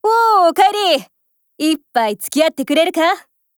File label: HELLO JP